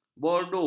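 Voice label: "bordu", Malay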